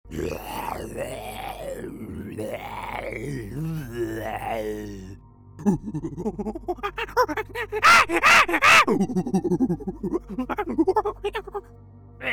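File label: demo creature, Voice Over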